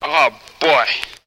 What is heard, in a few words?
"oh boy", voice; ooh ahh; bert